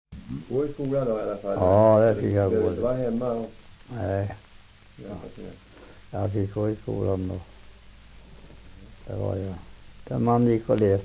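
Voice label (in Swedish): Hölö Södermanland, dialekter; Folkminnen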